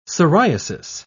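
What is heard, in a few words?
"siriasis", British English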